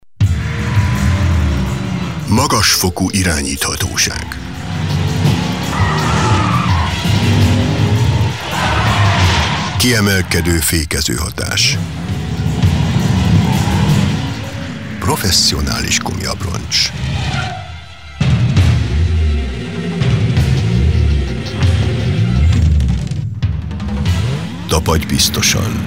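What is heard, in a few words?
Hungarian Werbung Freundlich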